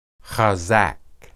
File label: "hazaq", Hebrew